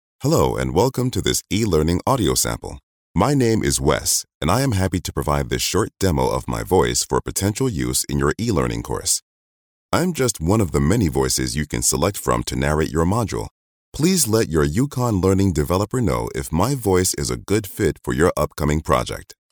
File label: Formal, voice talent